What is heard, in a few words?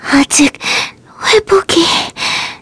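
Vox Dead, Korean; Lewsia A; kingsraid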